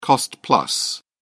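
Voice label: "cost-plus", British English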